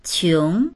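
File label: "qiong2", Chinese; 穷; 貧しい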